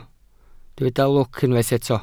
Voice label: "lwc", Welsh